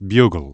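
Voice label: "bugle" word; Musical Instruments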